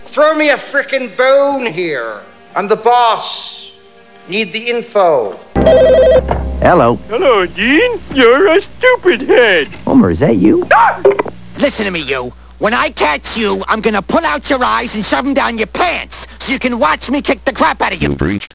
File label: Answering Machine